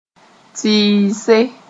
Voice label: "tɩɩse", moore